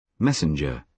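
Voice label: "messenger", British English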